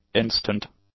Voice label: "instant", English; adjectives

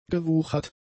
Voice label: "gewuchert", German; adjectives

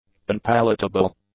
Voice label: "unpalatable", english; adjectives

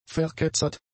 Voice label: "verketzert", German; adjectives

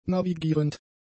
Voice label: "navigierend", German; adjectives

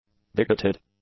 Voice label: "bigoted", English; adjectives

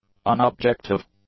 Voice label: "unobjective", English; adjectives

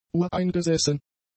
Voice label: "ureingesessen", German; adjectives

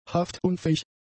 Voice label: "haftunfähig", German; adjectives

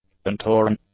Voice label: "untorn", english; adjectives

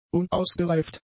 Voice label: "unausgereift", German; adjectives

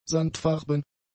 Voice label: "sandfarben", german; adjectives

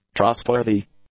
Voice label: "trustworthy", English; adjectives

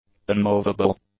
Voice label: "unmovable", English; adjectives

